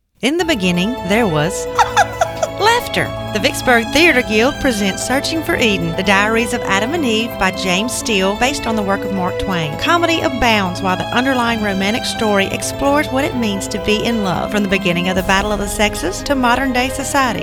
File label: radio; eden